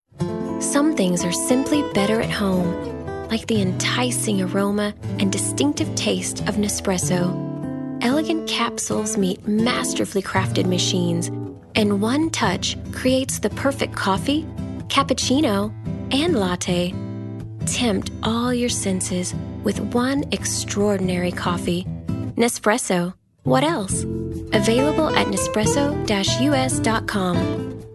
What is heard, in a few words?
Sexy Sultry